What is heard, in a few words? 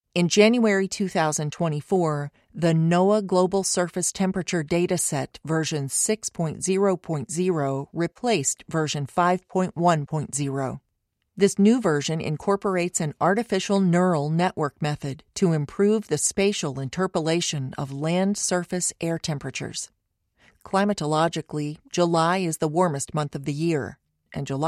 e-learning, climate